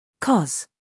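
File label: "humor", British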